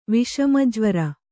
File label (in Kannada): "ವಿಷಮಜ್ವರ", Kannada